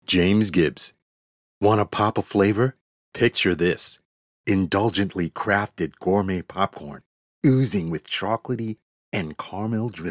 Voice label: Ad Gourmet Snack